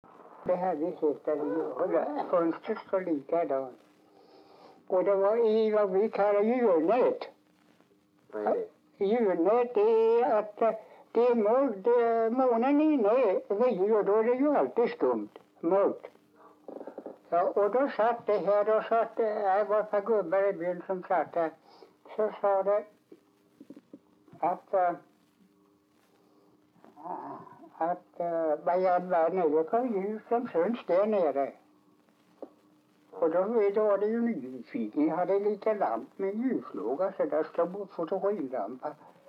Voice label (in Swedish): dialekter; Folkminnen; Svenska sagor